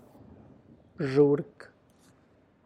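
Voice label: Tlacochahuaya Zapotec; corn row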